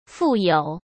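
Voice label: "负有", Mandarin Chinese; hsk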